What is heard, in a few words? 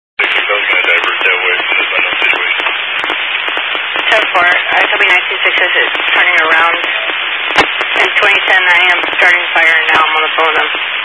Highway Patrol, Phoenix Metro West Area, scanner